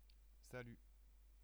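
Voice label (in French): "salut", French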